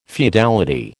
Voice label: "feudality", American